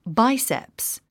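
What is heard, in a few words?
"biceps", English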